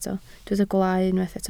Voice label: "gwaalau", Welsh